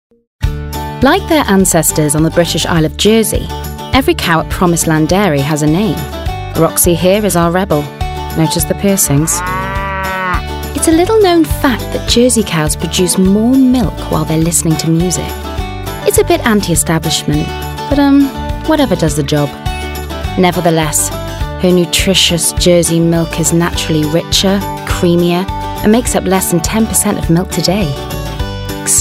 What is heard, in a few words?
female english welsh soft welsh accent commercial; jersey; devine voices